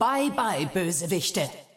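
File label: German; 索杰恩; 守望先锋2; 终极技能; 主动/敌方释放语音; Bye, bye, ihr Knilche!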